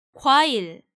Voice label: "gwail", Korean

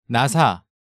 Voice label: "Nasa", Korean

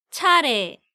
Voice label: "Charye", Korean

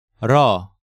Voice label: "Reo", Korean

